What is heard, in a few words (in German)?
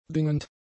"dingend", german; adjectives